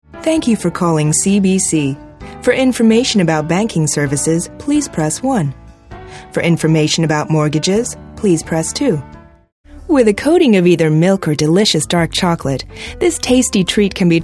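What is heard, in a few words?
American, narration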